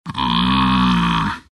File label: happy; Techies